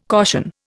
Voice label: "caution", Indian

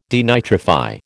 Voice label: "denitrify", American

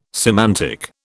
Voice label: "semantic", British

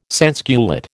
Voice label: "sansculotte", American

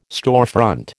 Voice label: "storefront", American

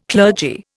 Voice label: "clergy", Indian

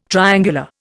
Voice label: "triangular", Indian

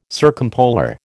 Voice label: "circumpolar", American

